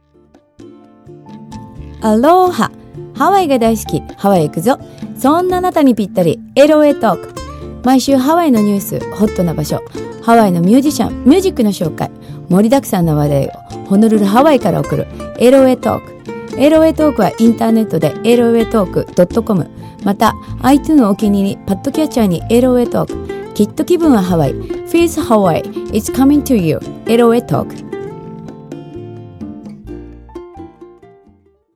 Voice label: Talk Promo Japanese